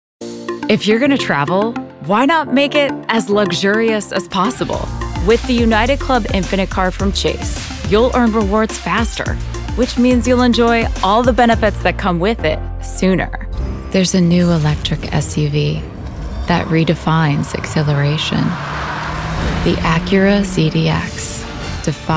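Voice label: Commercial Millennial Female, sincere, sassy, sophisticated, girl next door, natural, conversational, low, sultry, whisper, sexy, exciting, caring, sarcastic, charismatic, confident, friendly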